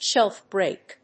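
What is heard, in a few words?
"Shelfbreak", English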